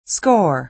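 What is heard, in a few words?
"score", American English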